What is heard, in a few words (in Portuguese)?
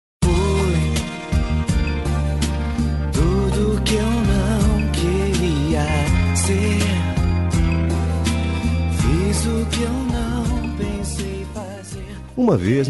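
reconcilia masc, Telemensagens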